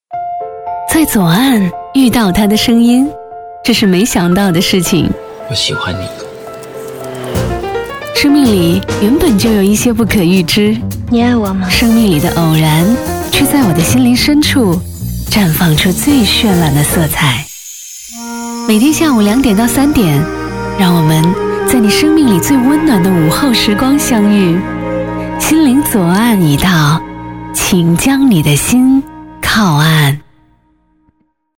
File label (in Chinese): 生活广播; 天津生活广播